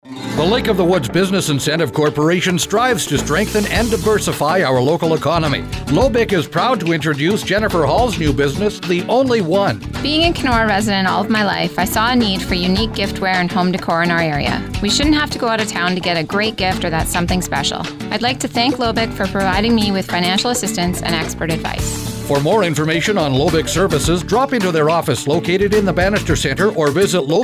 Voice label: Testimonial